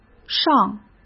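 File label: "尚", Mandarin Chinese; chinese flashcards; hsk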